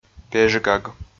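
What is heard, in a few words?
"пежгаг", Komi, male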